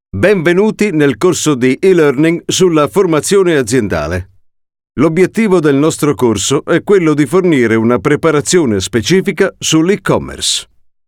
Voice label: e-learning

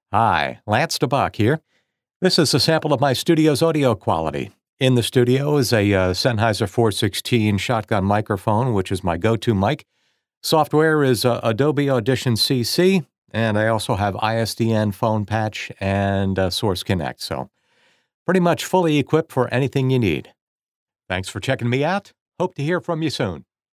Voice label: studio sample

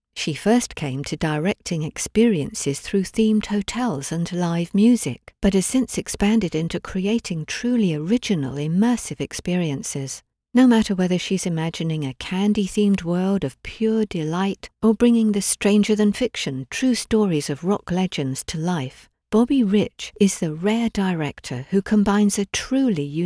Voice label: studio sample

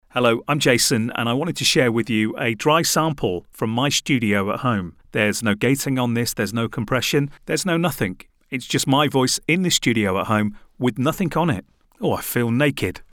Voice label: Dry Studio Sample

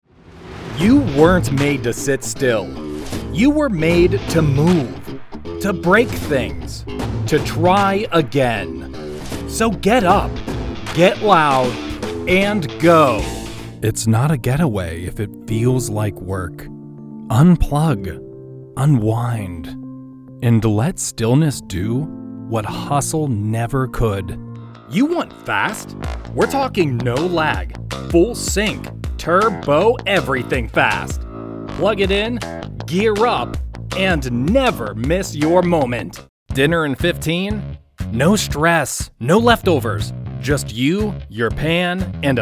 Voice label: commercial, Chicago voice

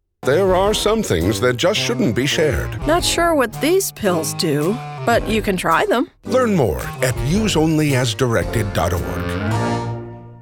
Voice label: Radio Ad

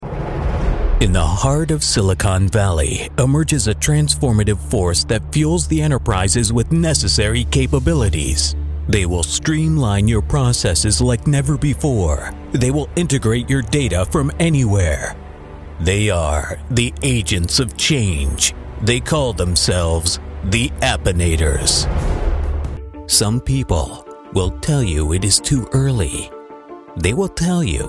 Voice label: Trailer VO

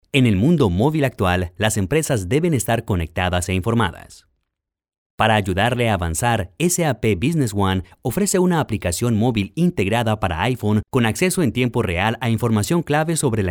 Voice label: Narration E-learning

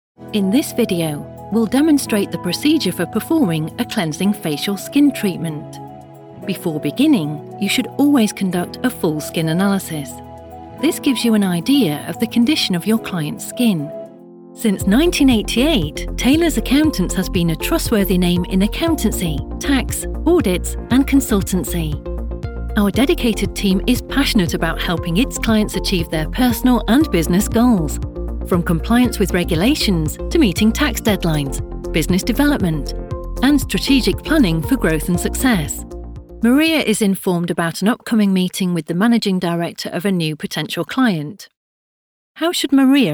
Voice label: Corporate and eLearning

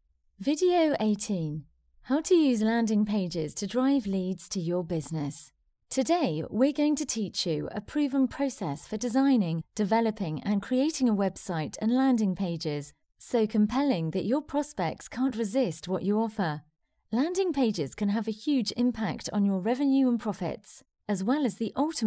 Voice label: E Learning

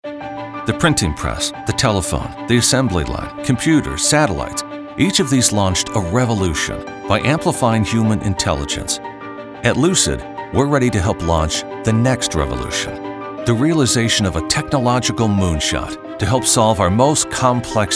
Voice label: Business Explainer

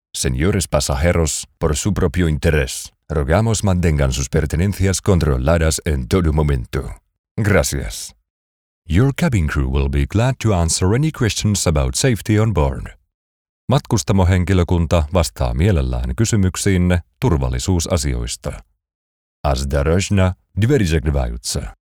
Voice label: multilingual announcements

